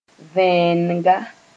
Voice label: "vẽenega", moore